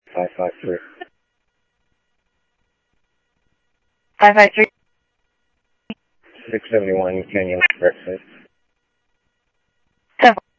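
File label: Lakes Sheriff Lake Patrol, scanner audio trunk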